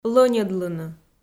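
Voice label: "лӧньӧдлыны", Komi, female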